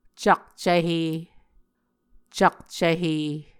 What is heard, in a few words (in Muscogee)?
"cakcvhe", Muscogee